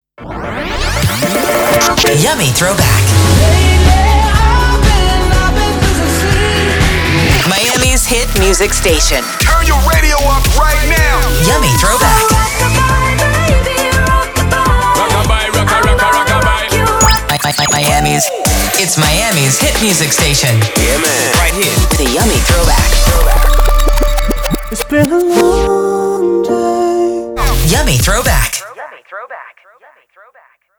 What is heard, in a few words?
Imaging Montage; Yummy Hits